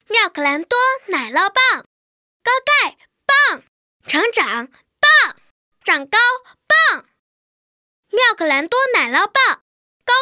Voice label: 女 男真童; 奶酪棒; 真童配音

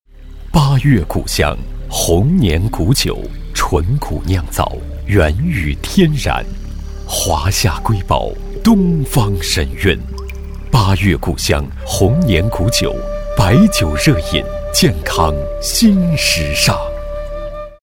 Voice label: 男国 广告; 广告配音; 八月谷香酒

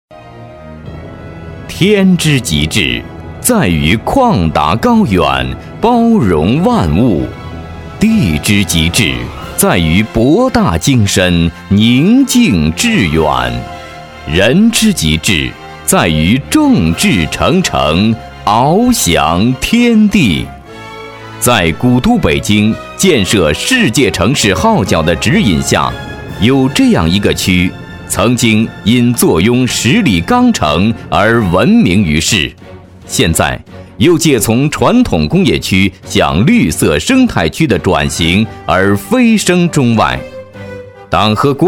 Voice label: 男国 政府专题

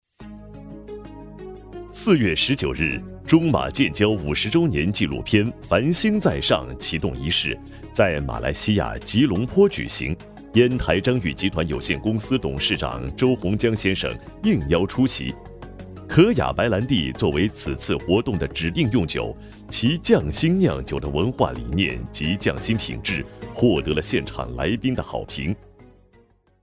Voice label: 男 新闻, 男声新闻

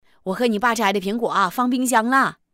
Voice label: 东北方言 女 东北妈妈 角色; 方言配音

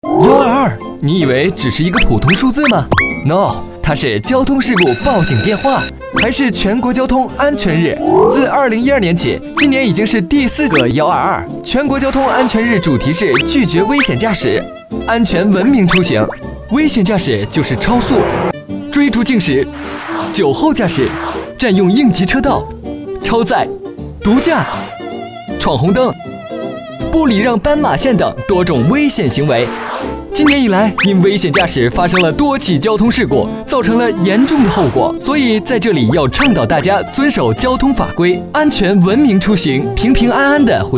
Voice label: 男 国 大音 宣传片; 飞碟说; 全国交通安全日

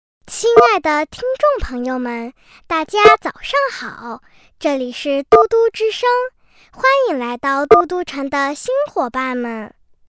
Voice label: 对口女真童 电台女孩; 真童配音

